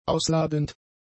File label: "ausladend", German; adjectives